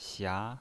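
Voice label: "xia", pinyin voice, second tone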